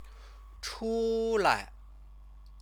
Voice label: "chulai", Chinese; 出 来; come out; directional verb phrase